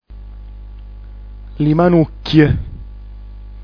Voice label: "limannuch", dialetto giulianova

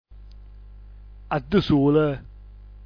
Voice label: "addsul", dialetto giulianova

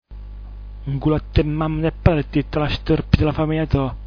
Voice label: ngulatemamt, dialetto giulianova

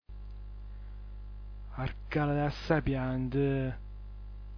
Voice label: "arcaladasapiant", dialetto giulianova

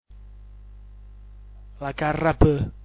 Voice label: "lacarrap", dialetto giulianova

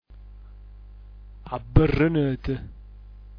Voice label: "abbrnt", dialetto giulianova

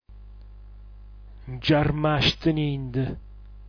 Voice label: "nciarmastnind", dialetto giulianova

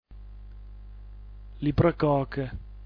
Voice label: "liprcoc", dialetto giulianova

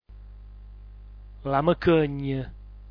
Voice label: "lamchgn", dialetto giulianova

